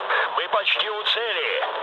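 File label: near waypoint, mood med, Russian; War Thunder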